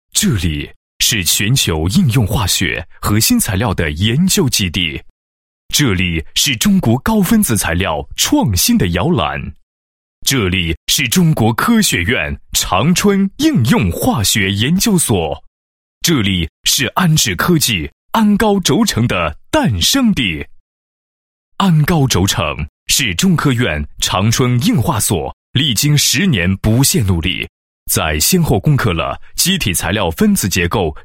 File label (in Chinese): 男 产品介绍; 安高轴承